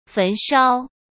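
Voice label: "焚烧", Mandarin Chinese; hsk; chinese-flashcards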